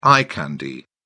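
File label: "eye candy", British English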